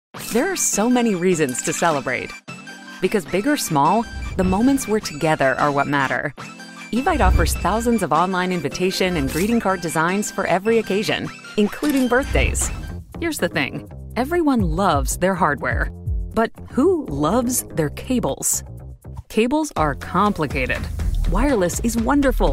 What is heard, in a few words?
Corporate